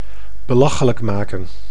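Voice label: "belachelijk maken", Dutch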